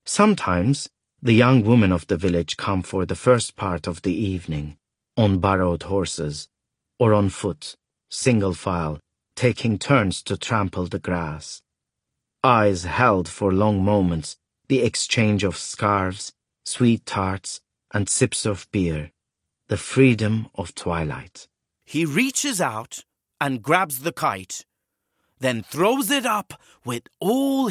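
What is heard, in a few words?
Turkish, AudioBook; GoLocalise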